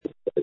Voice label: call recording